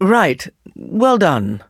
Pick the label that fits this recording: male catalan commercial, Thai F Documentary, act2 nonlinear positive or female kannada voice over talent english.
act2 nonlinear positive